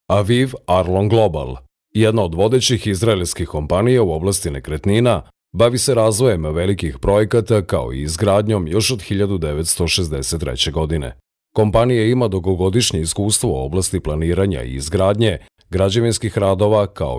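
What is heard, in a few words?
Serbian Serbia male narrative; serbian voice over talent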